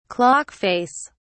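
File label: "clock face", British English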